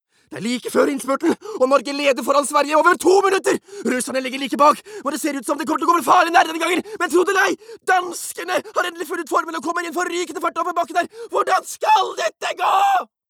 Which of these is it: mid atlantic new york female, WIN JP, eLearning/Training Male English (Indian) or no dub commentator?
no dub commentator